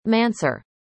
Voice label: "mansur", arabic; indonesian boy names